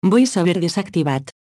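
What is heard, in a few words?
Voice Over Off, Catalan; AXEFIAudio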